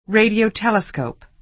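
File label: "radio telescope", American English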